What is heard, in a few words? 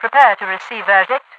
prepare to receive verdict, radio voice; Half Life 2